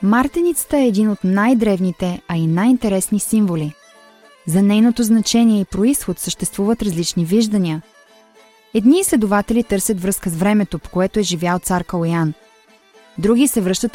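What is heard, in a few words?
Bulgarian, Commercial; GoLocalise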